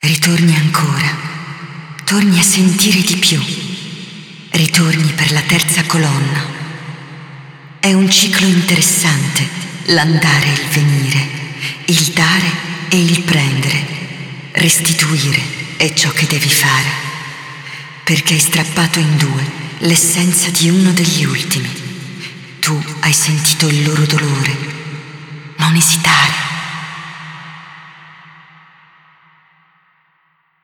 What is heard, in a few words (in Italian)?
Speech, ita; Yeesha; moul